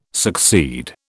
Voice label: "succeed", British